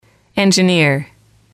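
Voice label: "engineer", english, female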